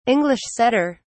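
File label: "english setter", British English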